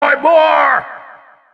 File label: soldier impressive; open fortress